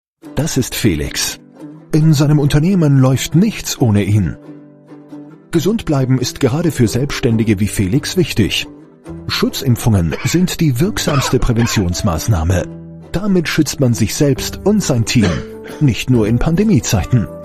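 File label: Austrian German Corporate; GoLocalise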